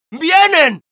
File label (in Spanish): Scout incoming, es; ¡Que vienen!